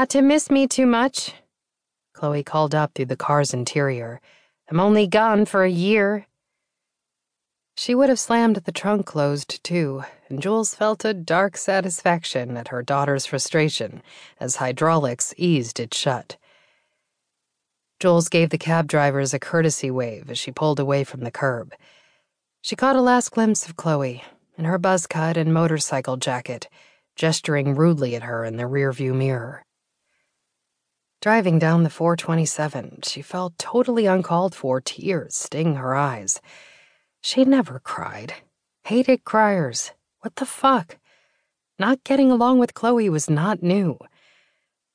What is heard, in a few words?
Voice Over